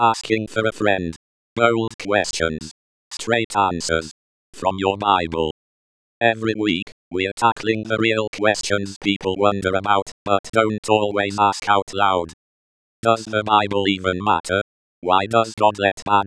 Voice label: voiceover; asking for a friend